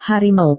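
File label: "harimau", Indonesian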